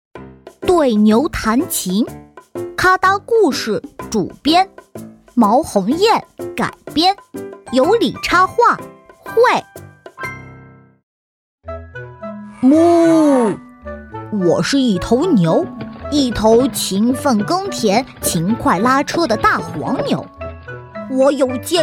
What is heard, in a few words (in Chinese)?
女 绘本类 童声; 对牛弹琴